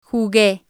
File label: "jugue", Spanish